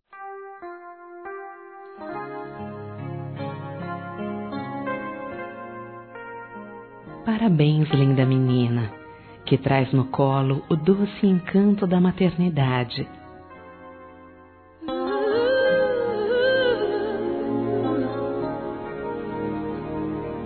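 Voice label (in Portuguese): MÃE JOVEM, feminino, Telemensagens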